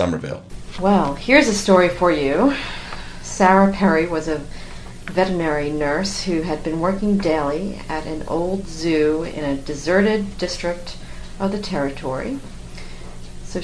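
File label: new york, International Dialects of English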